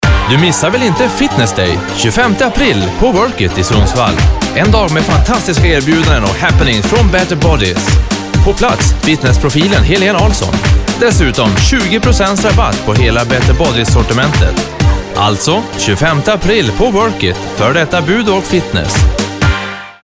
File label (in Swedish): radioreklam; Reklamprat 2; Cleen Sound